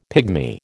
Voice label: "pigmy", American